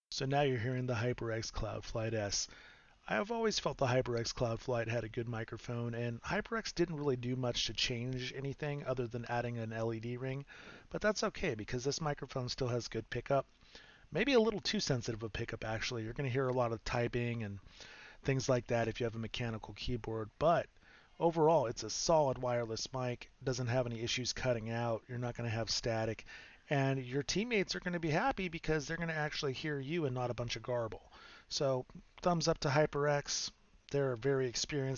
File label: flights mic